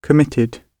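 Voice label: "committed", British English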